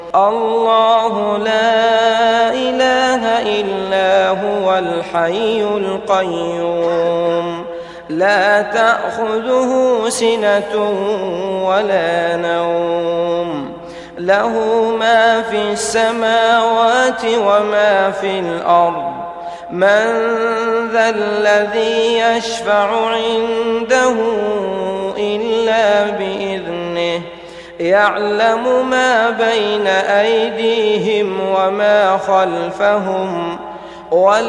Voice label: Transliteration of Ayatul Kursi in Roman Script with English and Arabic